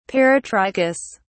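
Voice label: "peritrichous", British English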